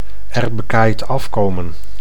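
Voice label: "er bekaaid afkomen", Dutch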